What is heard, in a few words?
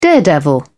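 "daredevil", British English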